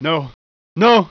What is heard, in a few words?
PLEAD